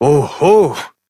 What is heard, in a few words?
Horse Stop Walk Adult; Duviri